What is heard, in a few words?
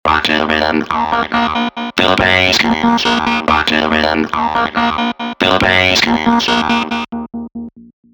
Vocoder voice Preset used Robot Voice